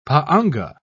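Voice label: "pa'anga", British English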